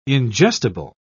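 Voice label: "ingestible", British English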